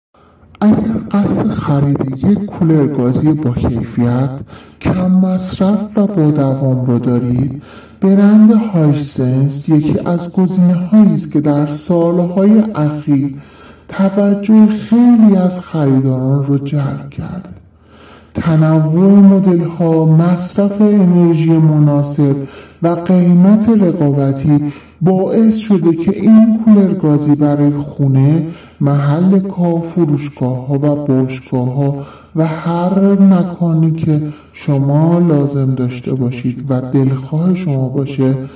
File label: deep male voice clear clean